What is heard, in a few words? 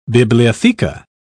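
"bibliotheca", British English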